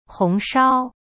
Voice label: "红烧" hong shao, Chinese; cyberchinese

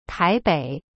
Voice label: "台北" tai bei, chinese

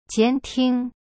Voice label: "监听", Chinese; to monitor

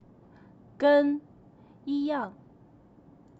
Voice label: "跟…一样", Chinese; gen. yi yang

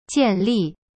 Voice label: "建立", Chinese; jian li

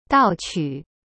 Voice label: "盗取", Chinese; to steal information

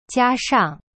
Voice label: "加上", Chinese; jia shang

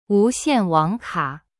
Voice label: "wu2xian4wang3ka3", Chinese; cyberchinese

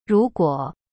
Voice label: "如果", Chinese; ru guo; cyberchinese

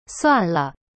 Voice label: "算了", Chinese; suan le

